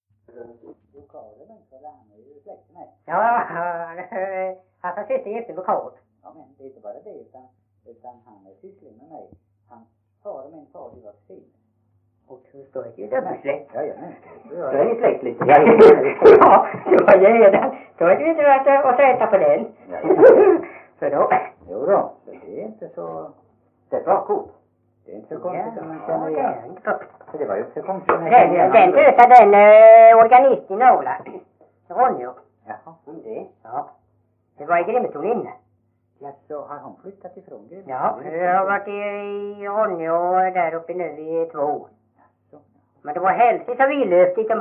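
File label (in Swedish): Veddige Halland, dialekter; Folkminnen; Svenska sagor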